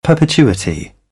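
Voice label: "perpetuity", British English; AmericanBritish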